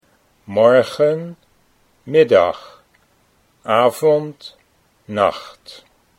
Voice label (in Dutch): "morgen middag", Dutch